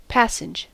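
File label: "passage", American English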